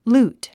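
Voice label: "loot", English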